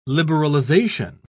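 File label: "liberalisation", British English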